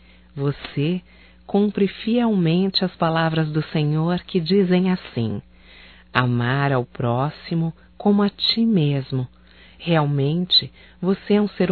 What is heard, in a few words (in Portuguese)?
HOMEN ENFERMEIRO, Só Voz, fem; Telemensagens; HOMENAGENS